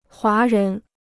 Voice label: "华人", Chinese